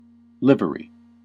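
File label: "livery", US English; pronunciations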